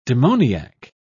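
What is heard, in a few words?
"demoniac", British English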